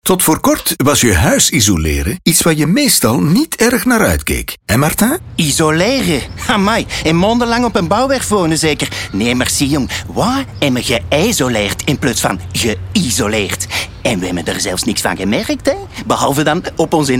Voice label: man spot RADIO; Vandersanden Group